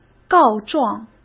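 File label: "告状", Mandarin Chinese; hsk; chinese-flashcards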